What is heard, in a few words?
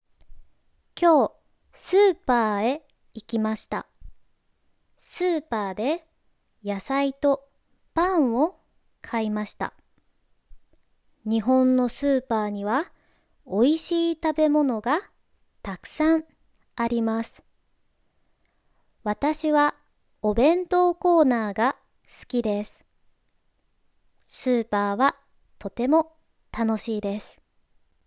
slow japanese supermarkets, reading practice